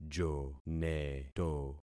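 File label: "joneto", concatenated syllables; Affixing experiment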